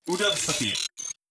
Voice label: robot human male greetings; Stellaris; synthetic dawn